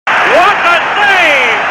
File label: what a save commentary